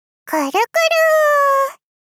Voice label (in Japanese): くるくる～ 少女×高音域